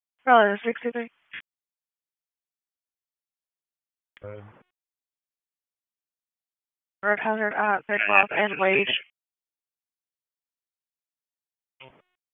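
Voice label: Raleigh Police Southwest District Dispatch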